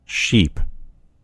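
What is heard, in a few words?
"sheep", American English; gcompris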